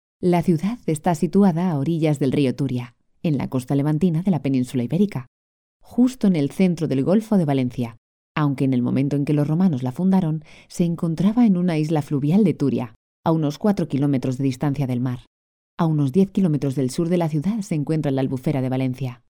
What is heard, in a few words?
locutora spanish voiceover, spanish voice artist